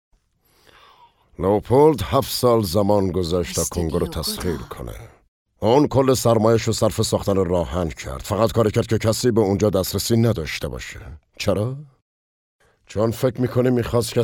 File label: dub